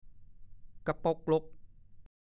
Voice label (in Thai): "กะป่กล่ก", ภาษาโคราช; 1. ลืมตาโต